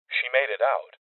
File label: Voices Cop Evacuated Male; rebel cops 2019 gamerip